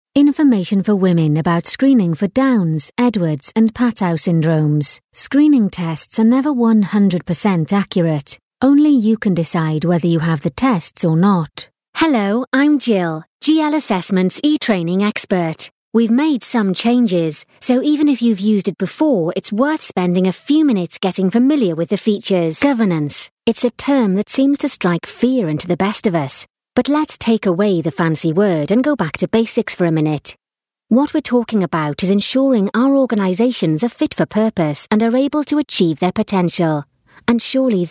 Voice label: E Learning